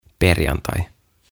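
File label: "Perjantai", Finnish; Friday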